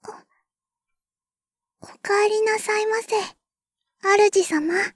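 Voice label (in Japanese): スタートアップ, システムボイス; ベル・カールステッド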